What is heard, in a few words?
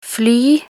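"fly", Norwegian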